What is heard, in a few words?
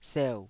"seu3", taiwanese Syllables